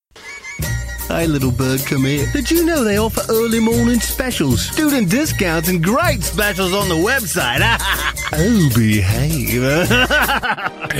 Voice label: Voice Talent Celebrity Impressions

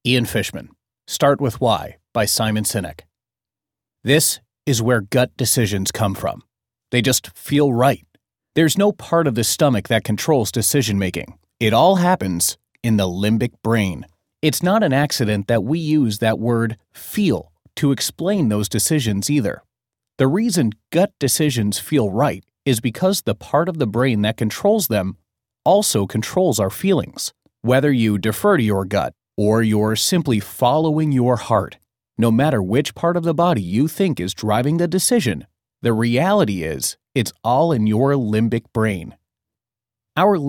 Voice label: Voice Talent Non Fiction Audiobook

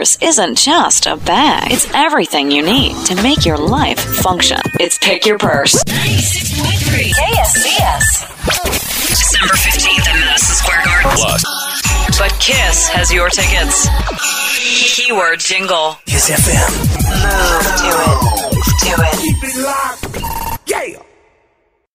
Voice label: Voice Talent Radio Imaging

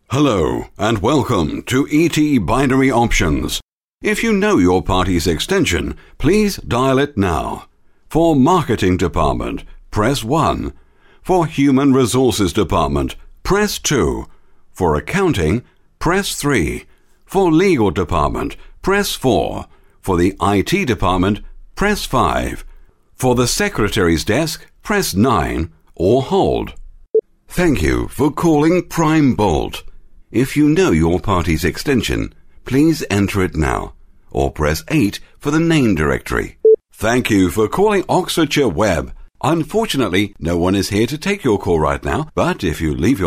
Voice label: Telephone IVRs

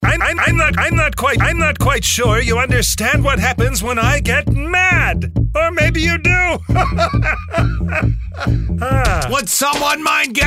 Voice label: Voice Talent Character Animation

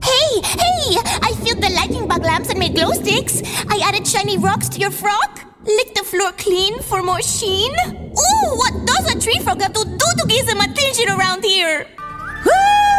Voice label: Voice Talent Character Animation

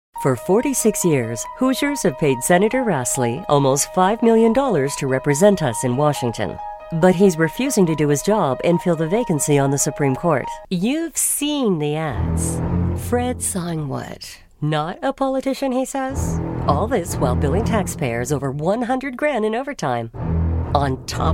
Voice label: Voice Talent Political

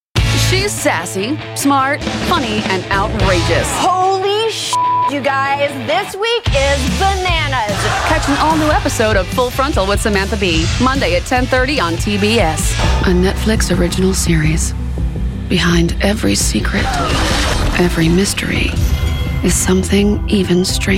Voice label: Promos

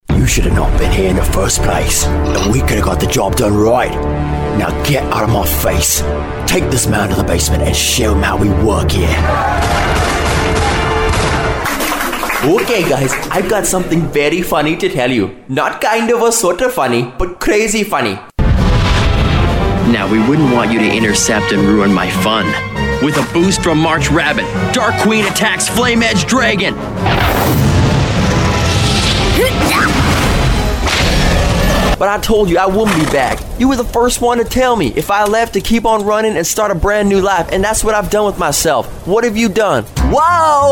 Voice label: Voice Talent Character Animation

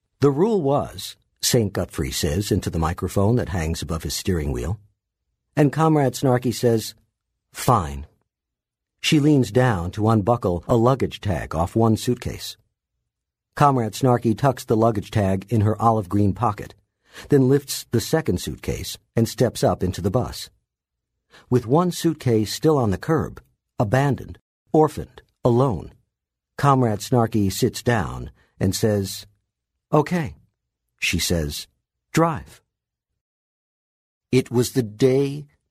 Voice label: Voice Talent Audiobook

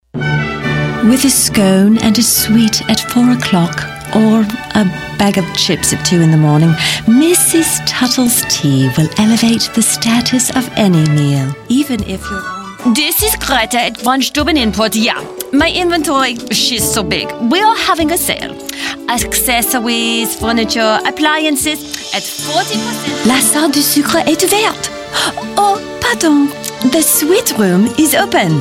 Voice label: Voice Talent Character Animation

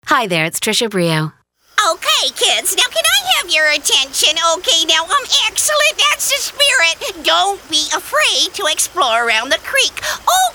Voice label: Voice Talent Animation

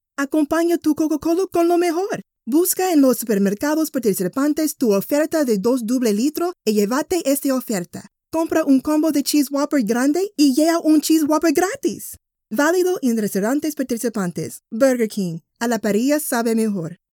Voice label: Voice Talent Spanish Commercial

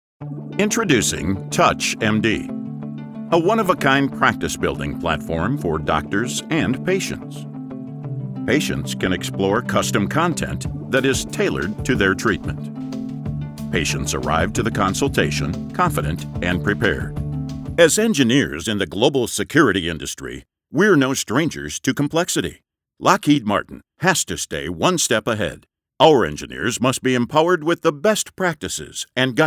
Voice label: Narration

